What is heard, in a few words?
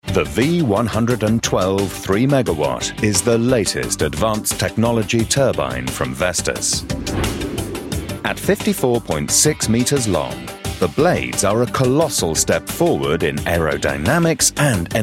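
British corporate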